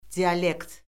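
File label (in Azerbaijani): "dialekt", Azerbaijani; Orfoqrafiya Lüğəti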